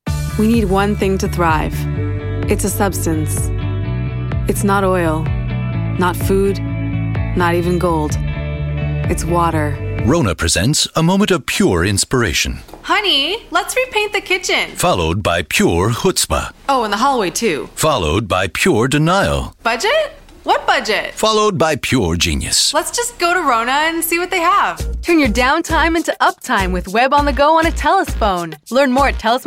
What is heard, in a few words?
commercial